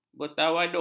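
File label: "bertawaduk", Malay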